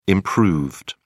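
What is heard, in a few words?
"improved", British English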